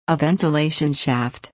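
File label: "a ventilation shaft", US English; Design and innovation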